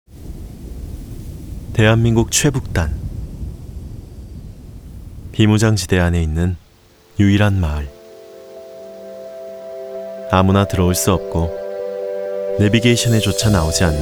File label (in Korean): 따뜻 다정 느낌 광고; KT dmz